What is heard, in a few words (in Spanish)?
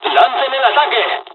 dialog, dialogs spanish; War Thunder